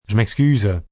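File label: Excuse Me French M, male, French; Etiquette; VoiceBox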